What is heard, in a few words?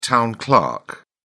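"town clerk", British English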